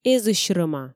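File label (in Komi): эзысь рӧма, Komi, female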